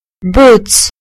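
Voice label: "boots", English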